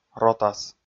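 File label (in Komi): "ротас", Komi, male; kpv audio dict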